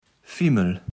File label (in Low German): "Fimmel", Platt